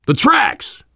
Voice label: world farmhouse, voice, biker; Left 4 Dead 2; survivor

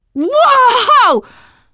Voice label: violence awe, teen girl; player; survivor; voice

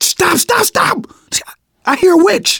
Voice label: heard witch, manager, survivor, voice; Left 4 Dead 2; left4dead2 dlc3

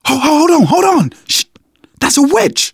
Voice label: heard witch, manager, survivor, voice; Left 4 Dead 2; left4dead2 dlc2

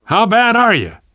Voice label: revive friend, namvet, survivor voice; Left 4 Dead 2; left4dead2 dlc3

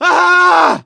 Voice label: hurt critical, voice; gambler

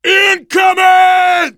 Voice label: incoming, voice, biker; Left 4 Dead 2; survivor

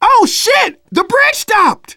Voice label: hitting bridge button, manager, survivor, voice; Left 4 Dead 2; left4dead2 dlc2

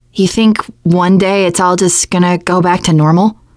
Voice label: generic, teengirl, voice; player; survivor

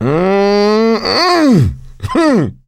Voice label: positive noise, coach; survivor

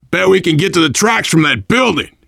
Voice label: world farmhouse, voice, biker

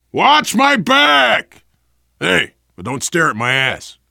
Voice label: cover me, voice, biker; Left 4 Dead 2; survivor

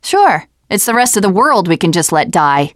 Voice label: teen girl

